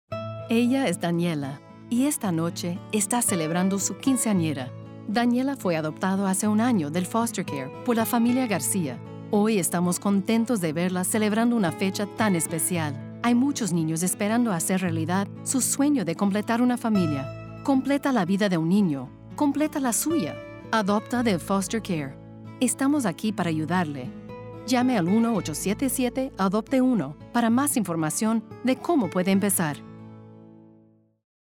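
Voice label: SPA LA Comm Warm, Spanish Latin American commercial warm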